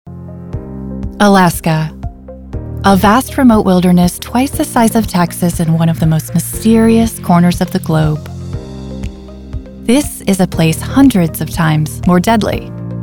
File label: NARRATION